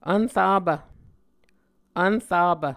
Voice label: "vnrapv", muscogee

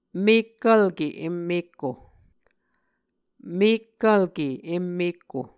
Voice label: "mekkvlke em mekko", Muscogee

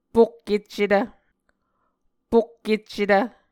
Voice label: "pokkeccetv", Muscogee; Mvskoke Words with Repeated Consonants; A Dictionary of Creek/Muskogee; SIL International; Onvkv Ohhvyayices

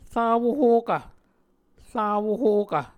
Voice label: "rawohokv", Muscogee

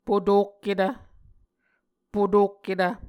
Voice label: "potokketv", Muscogee; Mvskoke Words with Repeated Consonants; A Dictionary of Creek/Muskogee; SIL International

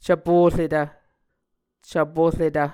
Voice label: "cvporetv", Muscogee

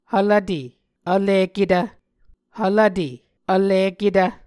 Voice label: "hvlvte vliketv", Muscogee

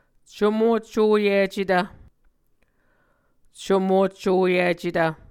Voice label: "comotcoyicetv", Muscogee; Mvskoke Words with Voicing Consonants; A Dictionary of Creek/Muskogee; SIL International; Onvkv Ohhvyayices

